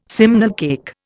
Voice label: "simnel cake", Indian

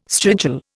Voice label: "strigil", Indian

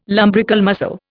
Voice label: "lumbrical muscle", Indian

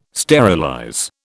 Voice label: "sterilize", British

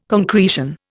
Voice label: "concretion", Indian

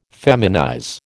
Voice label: "feminize", American

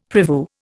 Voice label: "prevue", Indian; Dictionary

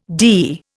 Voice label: "dee", Indian

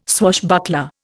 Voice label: "swashbuckler", Indian

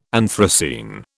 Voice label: "anthracene", British

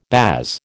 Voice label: "baas", American

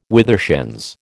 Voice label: "withershins", American

